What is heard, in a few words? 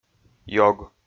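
"ёг", Komi, male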